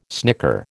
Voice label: "snicker", American

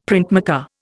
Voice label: "printmaker", Indian; Dictionary

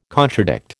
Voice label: "contradict", American

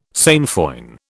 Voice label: "sainfoin", British

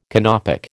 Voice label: "Canopic", American

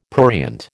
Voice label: "prurient", American

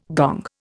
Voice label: "gong", Indian

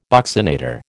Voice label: "buccinator", American

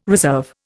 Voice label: "reserve", Indian

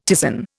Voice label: "ptisan", Indian; Dictionary

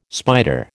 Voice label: "spider", American

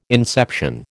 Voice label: "inception", American

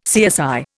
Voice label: "CSI", Indian

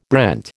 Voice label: "brent", American

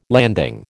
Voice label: "landing", American

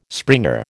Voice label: "springer", American

